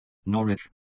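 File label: "Norwich", English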